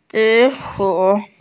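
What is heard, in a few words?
"zi3shu3", Mandarin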